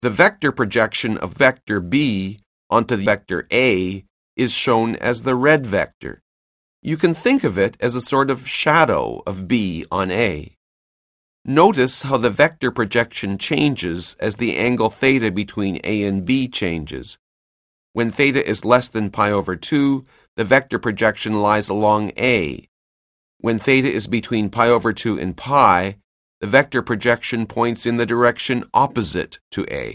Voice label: narration; CALCULUS 7E; cengage